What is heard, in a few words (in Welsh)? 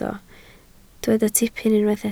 "tipyn", Welsh